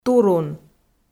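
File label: "турун", Komi, female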